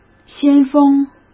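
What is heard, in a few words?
"先锋", Mandarin Chinese; hsk; chinese flashcards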